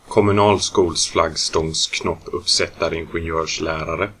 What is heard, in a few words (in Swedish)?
"larare", swedish